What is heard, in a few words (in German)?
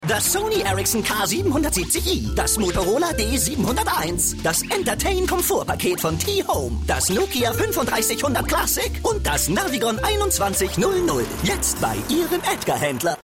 Werbung Trickstimme; Aetka